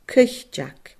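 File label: "caoiteag", Scottish Gaelic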